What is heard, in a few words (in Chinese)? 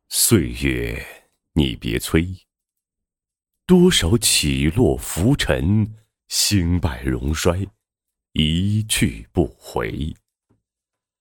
男, 宣传片 社区健康服务中心宣传片